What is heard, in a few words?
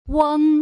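"weng", Putonghua; 零聲母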